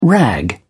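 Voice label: "rag", British English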